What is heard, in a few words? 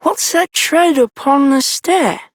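Child Rhymes Fear Child Male; Duviri; Childrens Rhymes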